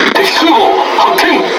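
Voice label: Speech Detection Enemy Aircarrier, Japanese; World Of Warships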